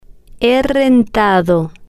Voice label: "he Rentado", spanish